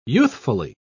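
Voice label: "youthfully", British English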